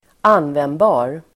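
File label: "användbar", Swedish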